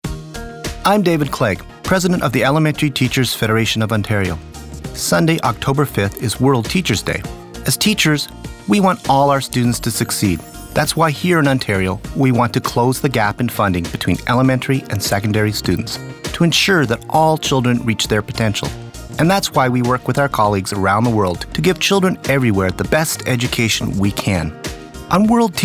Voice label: Radio; ETFO; World Teachers Day